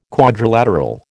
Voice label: "quadrilateral", American